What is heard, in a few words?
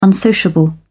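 "unsociable", British English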